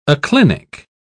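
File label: "aclinic", British English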